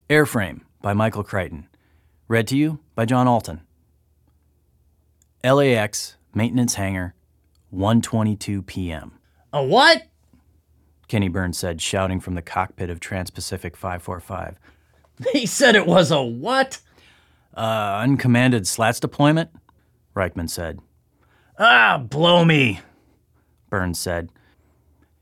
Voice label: Audiobooks Fiction; Airframe